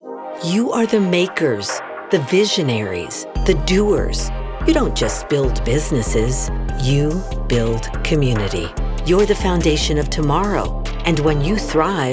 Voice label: english; COMCAST RISE 2025